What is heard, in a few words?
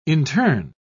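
"interne", British English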